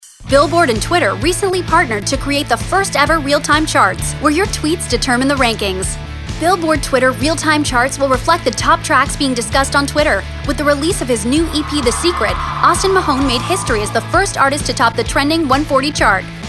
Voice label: promo, women